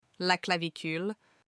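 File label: "La clavicule", French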